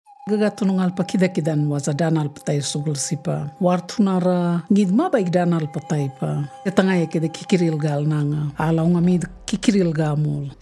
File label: Kalaw Lagaw Ya, radio; Carer Gateway